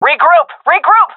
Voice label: request regroup, seal fem; vo; Counter Strike Model Pack; CS:GO